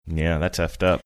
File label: quietly saying yeah thats effed up